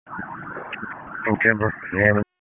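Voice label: Sheriff's Office Dispatch 1, p25; wake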